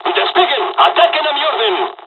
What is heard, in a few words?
japanese first wave, dialogs spanish; War Thunder